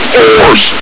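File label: "force!", male; hl2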